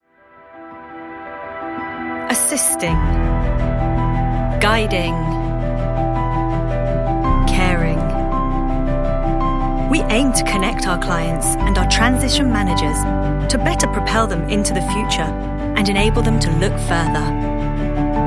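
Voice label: Corporate Narration (French), female; Valtus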